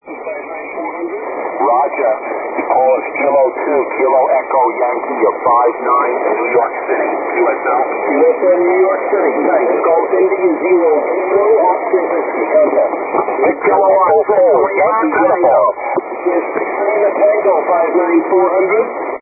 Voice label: 80m SSB